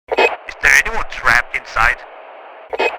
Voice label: Firefighter radio chatter is there anyone trapped inside